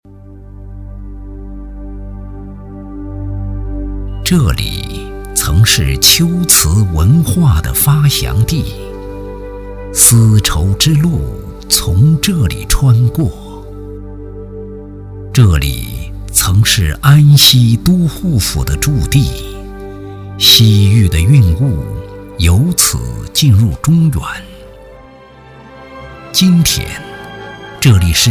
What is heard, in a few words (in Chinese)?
男 宣传片 新城 厚重 蕴藉, 国语男声配音; 陇西新城; 大气专题; 男97大气专题